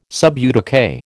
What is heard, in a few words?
"sub judice", American